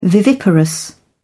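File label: "viviparous", British English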